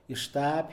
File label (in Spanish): "buscar", Mixe, hombre; Posesión